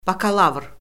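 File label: "bakalavr", Azerbaijani; Orfoqrafiya Lüğəti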